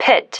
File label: "pit", female